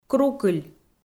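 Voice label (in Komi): "крукыль", Komi-Zyrian, female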